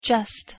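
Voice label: pronunciation "just", English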